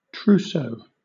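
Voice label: "trousseau", British English; pronunciations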